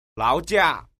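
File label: "lao jia", Chinese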